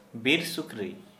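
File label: "wild boar", Santali, male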